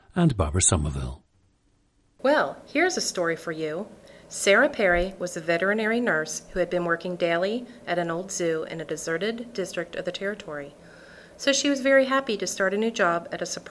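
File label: ohio, International Dialects of English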